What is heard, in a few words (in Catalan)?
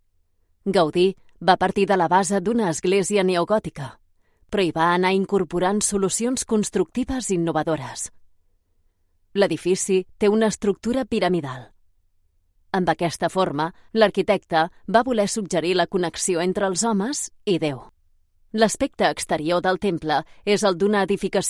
catala audioguia Sagrada Familia Barcelona